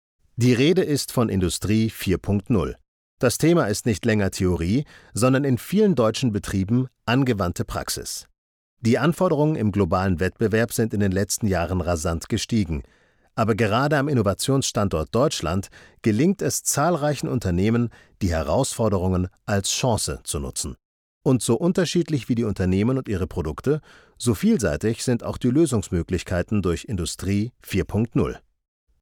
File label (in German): industriefilm, Presentation, Off, Commercial (Werbung), German; Industrie 4.0